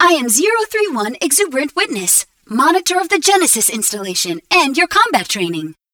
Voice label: warzone commander voice pack introduce exuberant